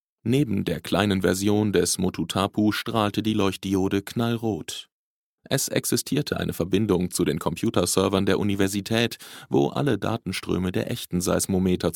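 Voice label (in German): Hörbuch Ofenfeuer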